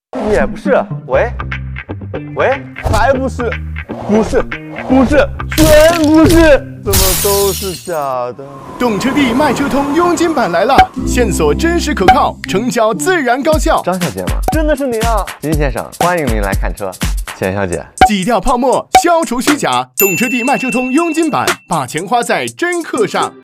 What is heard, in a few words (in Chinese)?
男, 年轻阳光; 懂车帝